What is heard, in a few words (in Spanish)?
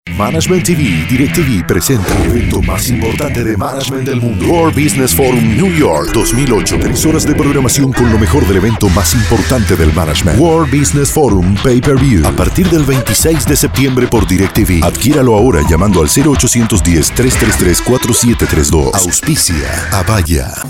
Neutro, Hombre